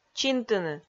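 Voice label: "чинтыны", Komi, female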